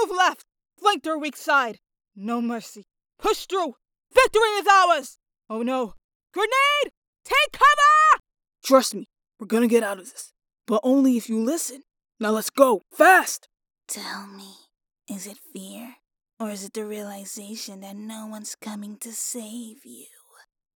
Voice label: raw audio sample reel of some of the character voices I can do. No music or effects (except for the robotic voice) no AI included, just my voice.